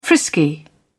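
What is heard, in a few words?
"frisky", British English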